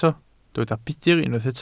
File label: "budr", Welsh